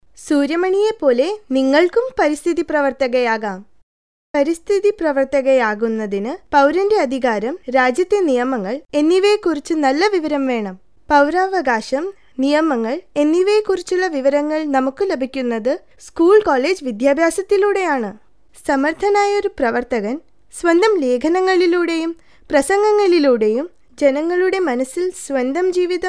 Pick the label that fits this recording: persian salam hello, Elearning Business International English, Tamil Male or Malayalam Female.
Malayalam Female